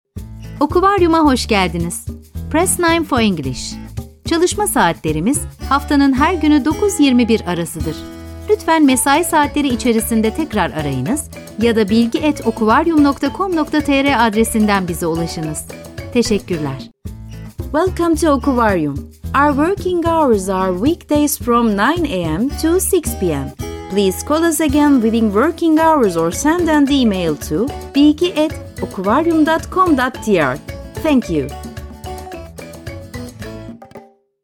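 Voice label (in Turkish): santral, Turkish; okuvaryum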